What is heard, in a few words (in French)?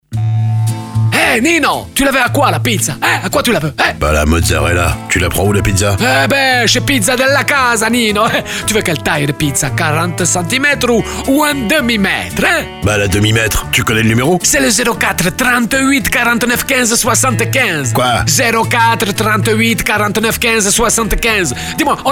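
spot, spots radio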